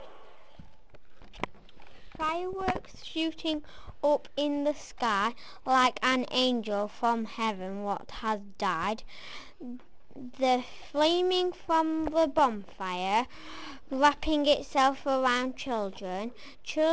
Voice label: fireworks poem

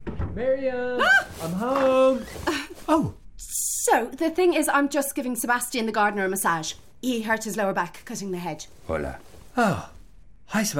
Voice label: radio ad; hailo dublin

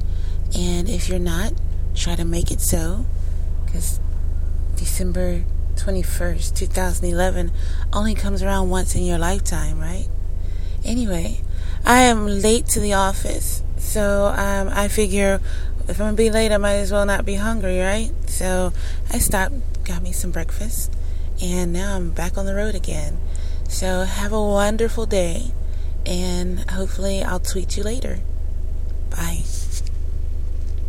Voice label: good morning voice; tweet

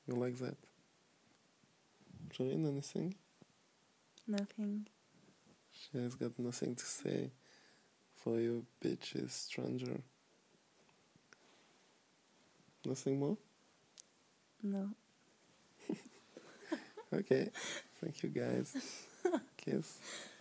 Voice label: sexy french

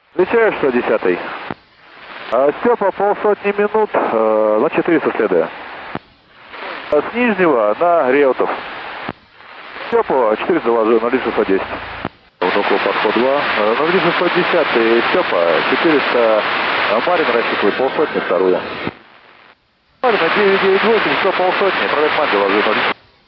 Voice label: Bell 407 pilot voice

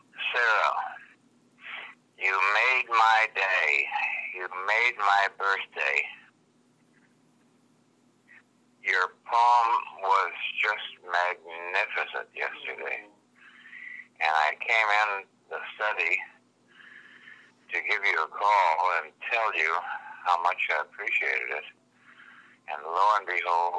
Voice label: voicemail message

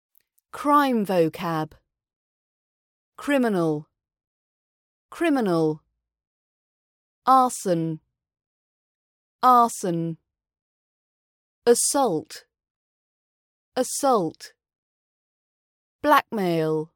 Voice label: crime vocab british accent practice

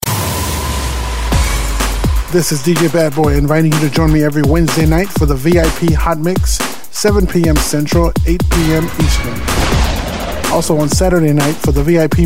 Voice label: dj promo

